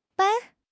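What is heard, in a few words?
"ban1", Mandarin Chinese; HSK2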